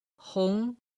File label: "hong2", Chinese